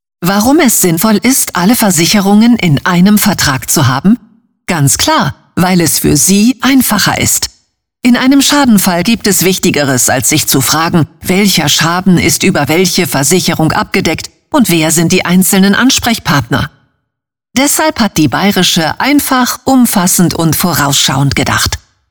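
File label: female, German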